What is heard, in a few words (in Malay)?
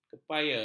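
"kepaya", Malay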